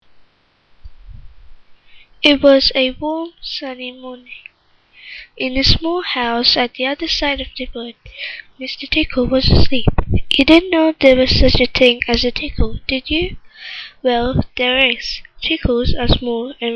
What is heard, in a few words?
London female